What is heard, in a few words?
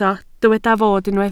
"fod", Welsh